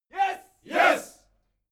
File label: military shout english "yes"